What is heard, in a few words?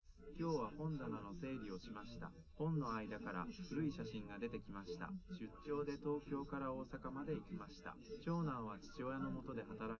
test male live rec; SiSEC11; underdetermined